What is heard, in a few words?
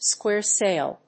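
"square sail", English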